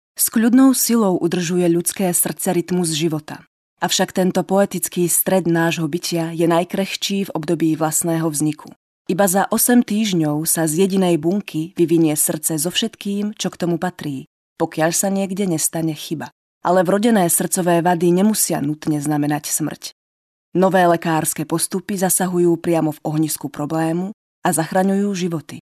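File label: Female Slovak documentary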